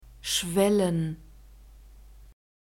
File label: "schwellen", German; verbs present tense irregular